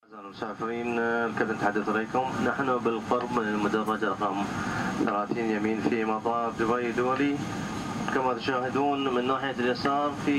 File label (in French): annonce retard